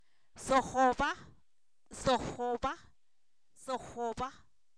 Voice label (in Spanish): está sentado, Zapoteco, san miguel albarradas; verbos; INALI